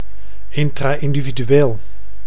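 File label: "intra-individueel", Dutch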